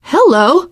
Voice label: nice job, teengirl; source engine; left 4 dead 2; survivor

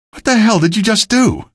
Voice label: notice grav gun kill, male; video games; npc; source engine; episodic; episode 1

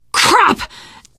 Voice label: reaction negative, teen girl; survivor voice

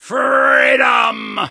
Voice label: soldier tank warcry; video games; source engine; tf; taunts

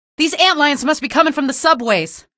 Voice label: alert antlions, female; episodic; episode 1; Source engine

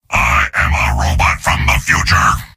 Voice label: soldier robot

